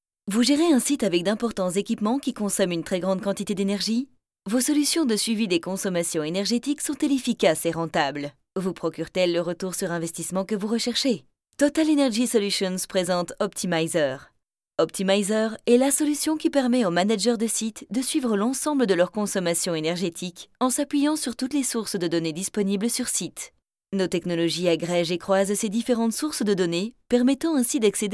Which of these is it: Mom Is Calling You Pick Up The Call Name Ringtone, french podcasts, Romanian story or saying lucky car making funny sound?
french podcasts